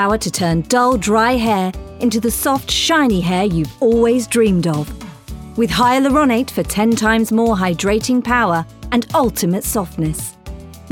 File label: Commercial Reel